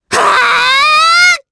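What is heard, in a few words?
Vox Casting, Japanese; Viska; kingsraid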